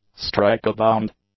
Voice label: "strikebound", English; adjectives

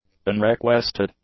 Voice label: "unrequested", english; adjectives

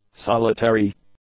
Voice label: "solitary", english; adjectives

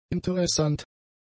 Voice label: "interessant", German; adjectives

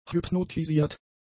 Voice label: "hypnotisiert", German; adjectives

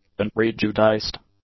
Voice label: "unprejudiced", english; adjectives

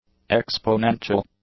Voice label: "exponential", english; adjectives

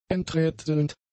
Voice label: "enträtselnd", German; adjectives

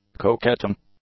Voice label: "coquetting", English; adjectives

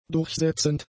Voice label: "durchsetzend", german; adjectives

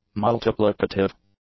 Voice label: "multiplicative", English; adjectives

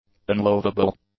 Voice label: "unlovable", English; adjectives

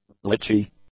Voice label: "litchee", English

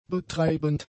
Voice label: "betreibend", German; adjectives

